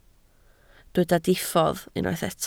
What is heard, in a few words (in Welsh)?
"diffodd", Welsh